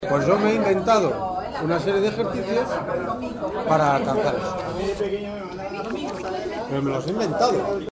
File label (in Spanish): habla rítmica natural; Teatro